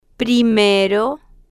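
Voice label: "primero", Spanish, female, slow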